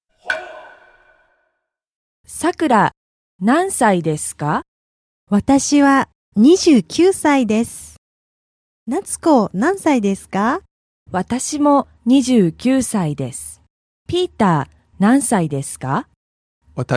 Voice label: dialog; JapanesePod101; Beginner Season 1